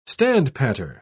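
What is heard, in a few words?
"standpatter", British English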